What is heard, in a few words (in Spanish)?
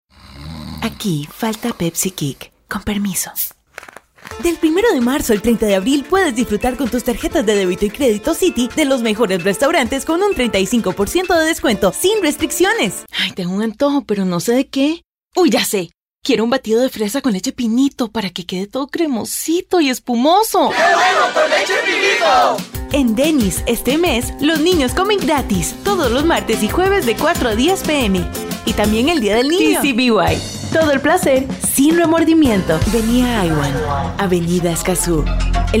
Locutora costa rica